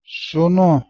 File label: "sunu", Anglo Saxon